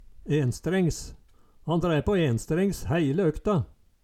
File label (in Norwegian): "enstrengs", Numedalsmål